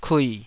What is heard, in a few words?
"kui3", Taiwanese syllable